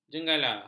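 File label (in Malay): "jenggala", Malay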